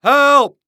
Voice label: Human Male Yell Help

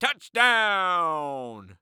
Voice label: Sports Human Voice Male Football Announcer; Touchdown

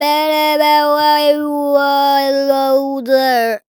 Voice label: Cartoon Human Male Baby Talk; AdobeCC

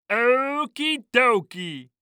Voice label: Cartoon Voice Nerd; Okey Dokey; AdobeCC

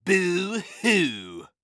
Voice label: Cartoon voice Boo Hoo Bully

